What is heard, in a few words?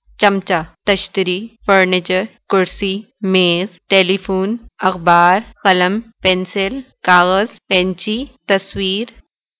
Urdu objects